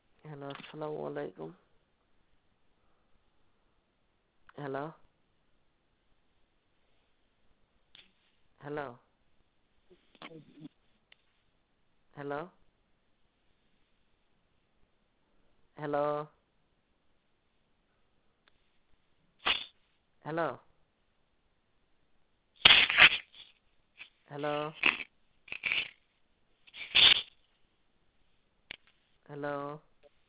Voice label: Auto Call Record